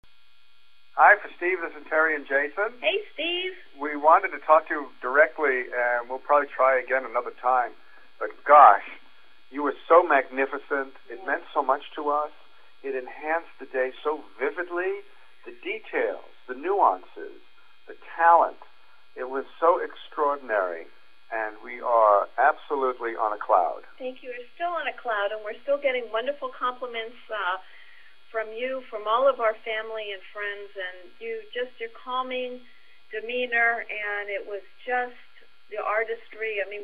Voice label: voice message